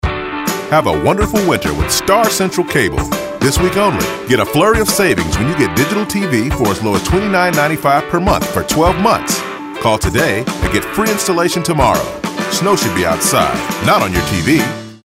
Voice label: Upbeat